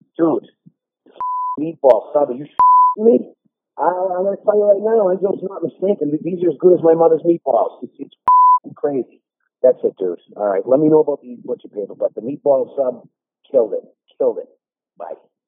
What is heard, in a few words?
Voicemail